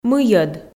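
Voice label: "мыйӧд", Komi, female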